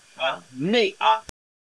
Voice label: Voice Acting, es, M

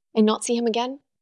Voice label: Voice Acting es F

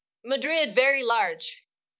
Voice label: Voice Acting es F

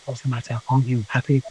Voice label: Voice Acting, es

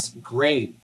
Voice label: Voice Acting, es, M

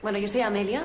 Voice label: Voice Acting es F

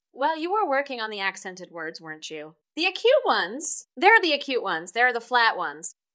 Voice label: Voice Acting es F

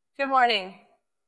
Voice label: VoiceActing es F

